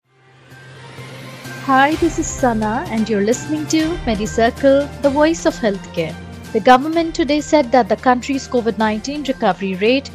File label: english news